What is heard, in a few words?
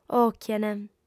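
"òchient", Sardinian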